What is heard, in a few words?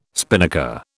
"spinnaker", British